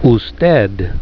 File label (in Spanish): "usted", spanish